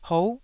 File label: "hou9", Taiwanese syllable